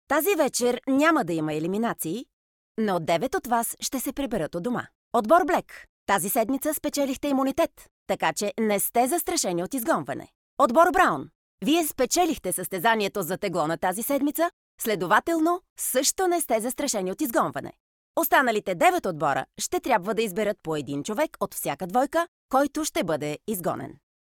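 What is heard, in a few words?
bulgarian female narration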